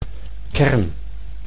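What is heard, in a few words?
"kern", Dutch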